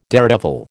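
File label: "daredevil", American English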